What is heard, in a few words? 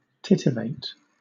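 "titivate", British English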